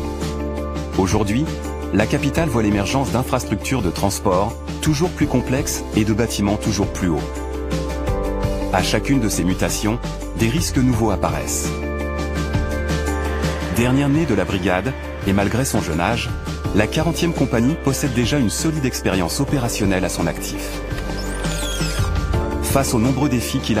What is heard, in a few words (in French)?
DOC Pompiers Voix Off; LCP